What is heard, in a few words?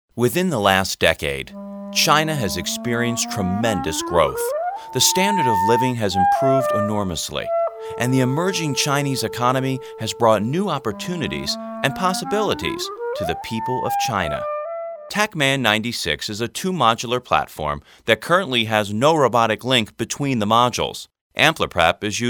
Narration